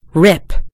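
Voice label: "rip", American English; gcompris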